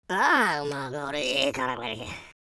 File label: pray, London Cabbie; speech